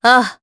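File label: Vox Japanese; Aselica; kingsraid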